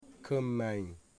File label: "three plus", Wamut